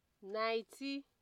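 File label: "nightie", Nigerian Pidgin, female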